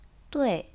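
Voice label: "dui", Chinese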